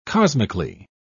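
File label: "cosmically", British English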